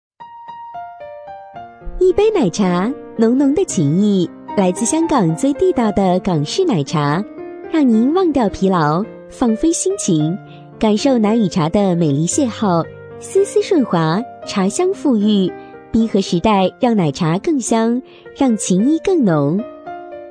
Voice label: 【广告】一杯奶茶, 女

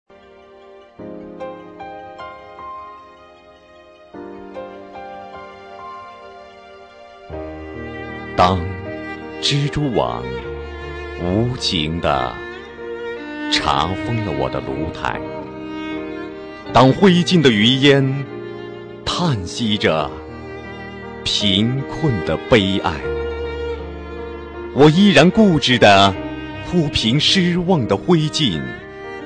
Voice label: 男 朗诵

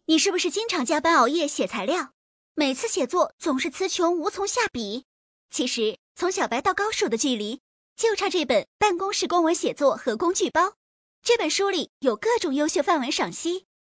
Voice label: 女5号广告 甜美广告

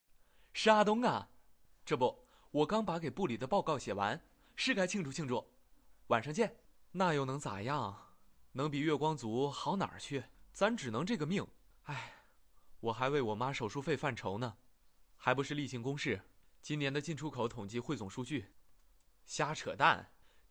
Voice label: 男 角色模仿 青年

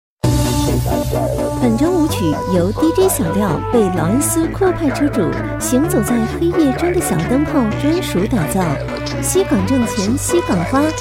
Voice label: 女 抖音样音; 抖音彼岸花

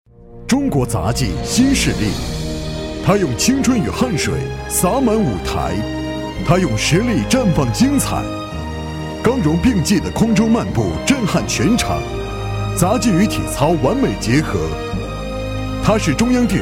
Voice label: 男 宣传; 杂技

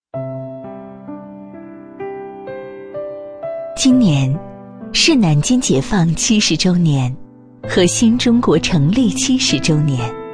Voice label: 女 深情旁白; 爱情最美的样子

